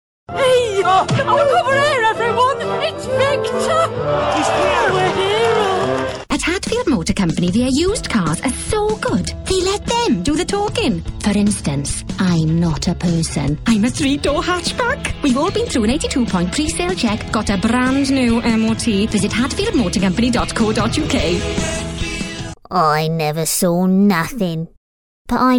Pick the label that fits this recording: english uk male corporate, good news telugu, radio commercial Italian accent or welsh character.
welsh character